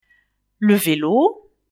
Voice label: "vélo", French; velib

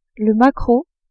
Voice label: "maquereau", french

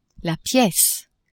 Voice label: "piece", French; maison

